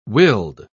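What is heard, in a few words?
"willed", British English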